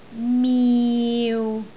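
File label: "miu6", Cantonese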